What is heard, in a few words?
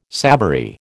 "savoury", American